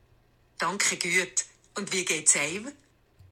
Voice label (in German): "Danke guet", Wallis, female